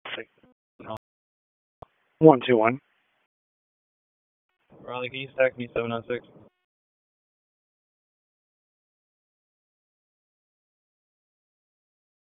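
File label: Police Southwest District Dispatch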